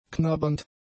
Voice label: "knabbernd", German; adjectives

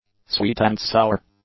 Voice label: "sweet-and-sour", English; adjectives

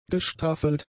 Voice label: "gestaffelt", German; adjectives

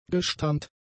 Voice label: "gestammt", German; adjectives

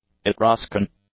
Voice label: "Etruscan", english; adjectives

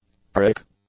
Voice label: "prick", English; nouns

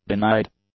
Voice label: "denied", english; adjectives

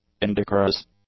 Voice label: "indecorous", English; adjectives

